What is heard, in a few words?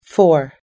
"four", US English